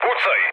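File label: Serbian attack position mood low, speech; War Thunder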